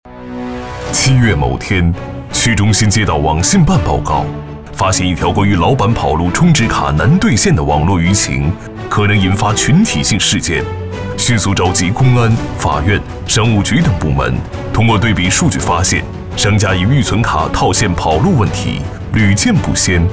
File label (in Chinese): 男, 专题严肃, 法治; 理发店跑路